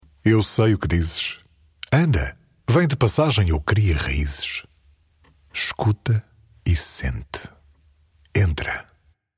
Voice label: portuguese tv shows